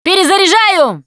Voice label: reload intense, russian, Survivor Voice Producer